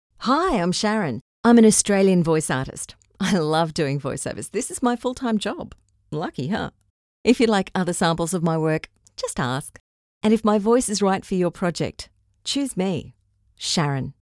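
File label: English Australian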